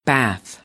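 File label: "bath", American English; AmericanBritish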